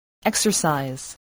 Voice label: "exercise", voice

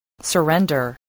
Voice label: "surrender", English

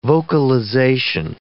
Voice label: "vocalization", English

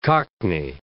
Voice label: "cockney", English

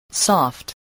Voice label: "soft", English voice

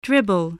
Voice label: "dribble", spelling

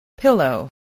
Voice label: "pillow", voice, English

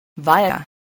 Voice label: "via", English

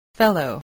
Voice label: "fellow", spelling

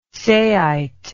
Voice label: "saite", English

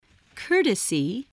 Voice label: "courtesy", voice spelling

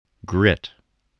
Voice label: "grit", spelling

